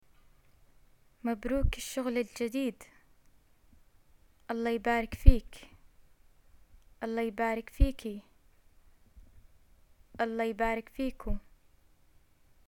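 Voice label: greet new job, Jordanian arabic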